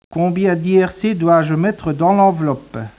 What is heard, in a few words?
speech, French